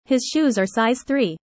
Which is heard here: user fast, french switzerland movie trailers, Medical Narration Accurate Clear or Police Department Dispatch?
user fast